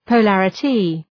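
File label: "polarity", English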